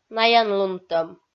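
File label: "наянлунтӧм", Komi, female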